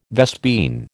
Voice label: "vespine", American